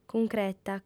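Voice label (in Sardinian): "cuncreta", female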